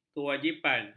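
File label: "kewajipan", Malay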